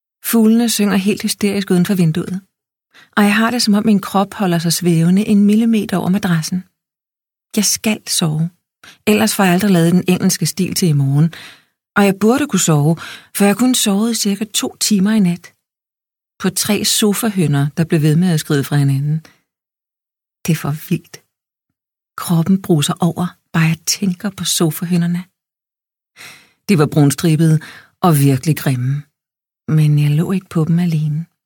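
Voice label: Danish, Audiobook, female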